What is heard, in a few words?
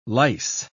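"lyse", English, British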